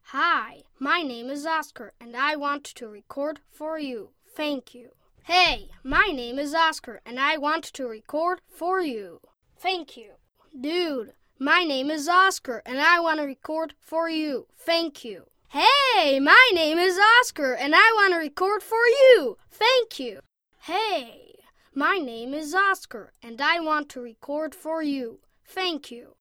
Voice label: Amerikan Ingilizcesi Erkek Cocuk, Erkek Ses

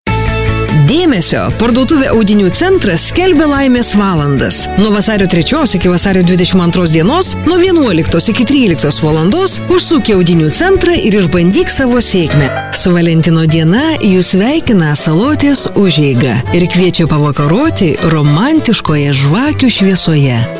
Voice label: Litvanca Kadin, seslendirme; Kadın Ses; kadin sesler

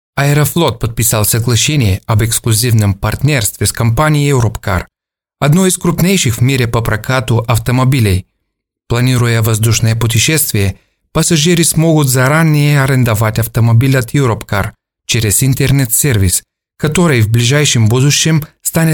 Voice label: Rusca Erkek, rusca seslendirme

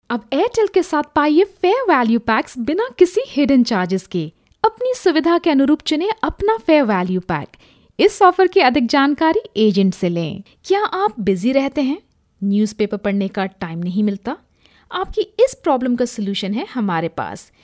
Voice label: Hintce Kadin; seslendirme

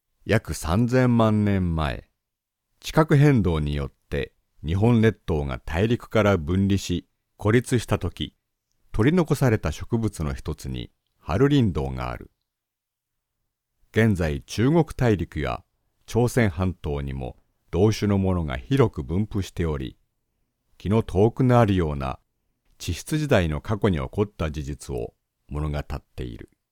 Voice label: Japonca Erkek, seslendirme

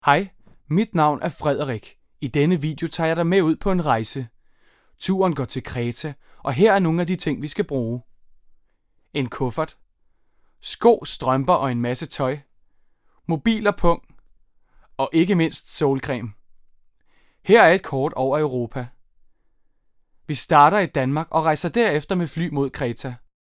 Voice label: Danca Erkek, seslendirme

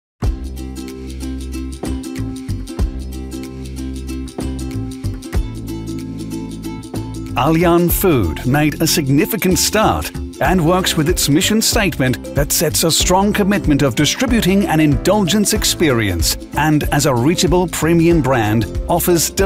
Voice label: Amerikan Ingilizcesi Erkek

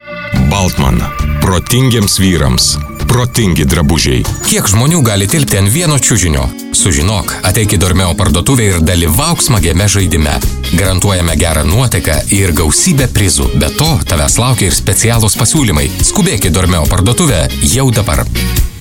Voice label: Litvanca Erkek, Erkek Ses; litvanca seslendirme; erkek sesler

